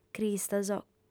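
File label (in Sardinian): "cristos", female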